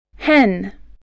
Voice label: "hen", English, spelling